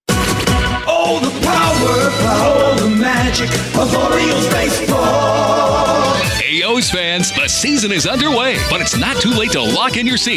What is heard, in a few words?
Radio Commercial; BaltimoreOrioles